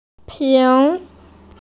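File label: "ping2", Mandarin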